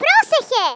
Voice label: "drop", Greek; Worms Armageddon

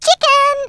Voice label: "Coward", Brooklyn; Worms Armageddon

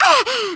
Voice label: "JUMP", Polish speech; Worms Armageddon

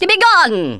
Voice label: go away, Speech Thespian; Worms Armageddon

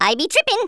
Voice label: bungee, Speech Rasta

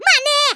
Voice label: "TRAITOR", Portuguese; Worms Armageddon

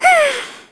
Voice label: BORING, Italian; Worms Armageddon

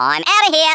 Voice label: "Oh dear", Australian; Worms Armageddon

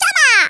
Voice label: "dragon punch", Hispanic; Worms Armageddon

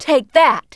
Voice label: "DRAGONPUNCH", Smooth Babe, Speech; Worms Armageddon

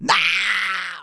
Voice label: "Nooo", speech; Soul Man

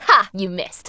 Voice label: "Missed", Smooth Babe; Worms Armageddon

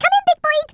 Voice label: "Orders", Brooklyn; Worms Armageddon

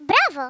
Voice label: "excellent", Greek; Worms Armageddon

